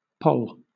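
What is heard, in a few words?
"poll", British English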